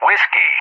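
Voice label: "Whiskey", English; JTAC; Alphabet; DCS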